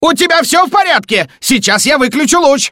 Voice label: ru; Wheatley

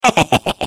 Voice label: Defective Turret, laugh; sp sabotage factory

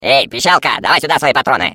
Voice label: Defective Turret, ru; sp sabotage factory; defect postrange

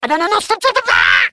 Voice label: Defective Turret sabotage factory defect fail, fr

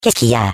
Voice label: Defective Turret sabotage factory defect test, fr

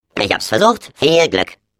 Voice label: Defective Turret goodbye, de; turret return defect

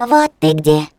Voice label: Turret turret deploy, ru

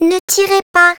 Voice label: turret shot at, fr

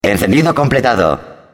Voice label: Announcer wakeup powerup, es

